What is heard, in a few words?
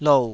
"lou3", Taiwanese syllable